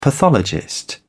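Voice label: "pathologist", British English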